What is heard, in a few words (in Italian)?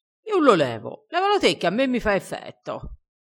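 "effetto", vocabolario dialettale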